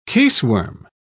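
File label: "caseworm", British English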